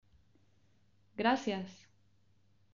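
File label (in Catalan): gracies, Catalan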